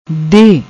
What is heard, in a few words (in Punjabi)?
"dehh", Punjabi; ਦੇਹ